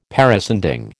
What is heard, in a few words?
"parascending", American